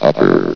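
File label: "upper", male synthesized announcer voice; hlvox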